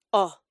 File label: "o" phonic; spoken English lanugage